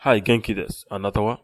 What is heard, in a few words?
fine, japanese